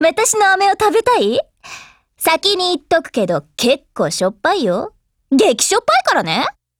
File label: DIALOGUE JP